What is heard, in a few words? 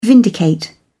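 "vindicate", English, British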